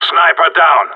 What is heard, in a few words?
sniper down, radio; GSG9